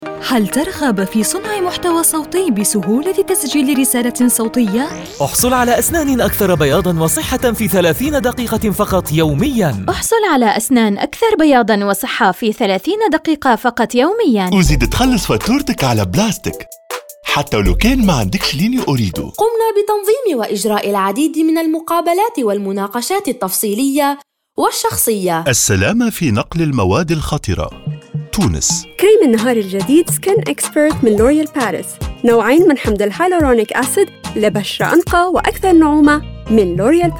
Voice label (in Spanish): ARABE